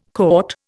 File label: "caught", Indian